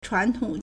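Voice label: "chuántǒng", Chinese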